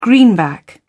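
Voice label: "greenback", British English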